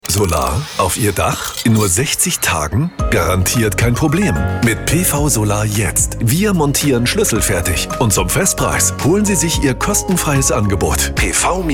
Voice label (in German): Werbung Radio